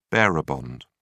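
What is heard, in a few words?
"bearer bond", English, British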